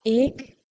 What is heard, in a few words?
"ek", Nepali